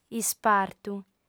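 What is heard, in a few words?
"ispartu", Sardinian, female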